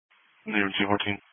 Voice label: Police Patrol 1 (Dispatch)